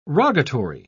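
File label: "rogatory", British English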